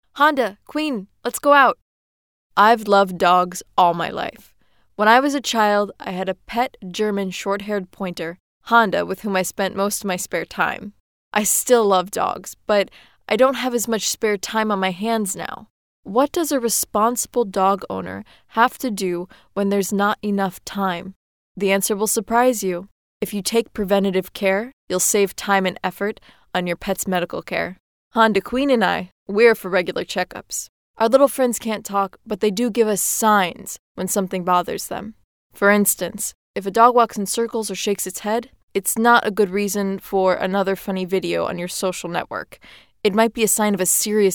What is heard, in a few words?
woman american